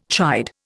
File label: "chide", Indian